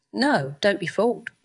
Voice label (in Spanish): Voice Acting es